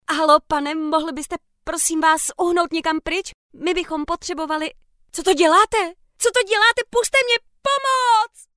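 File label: Czech, female, acting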